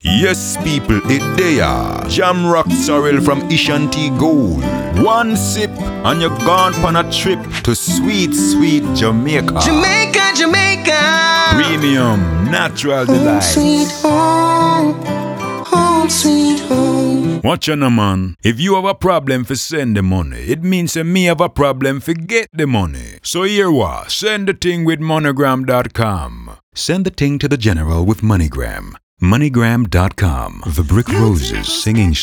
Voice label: Jamaican